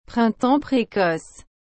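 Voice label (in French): "printemps précoce", French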